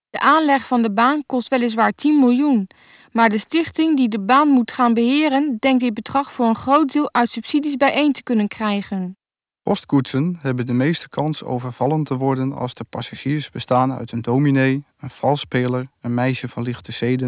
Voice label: dutch, speech samples; P50